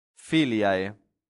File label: "filiae", latin; santillana